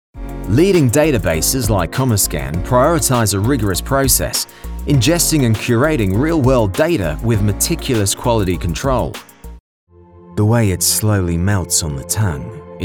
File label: Australian Accent; Demo Reel